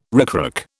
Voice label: "ricrac", British